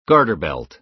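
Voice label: "garter belt", American English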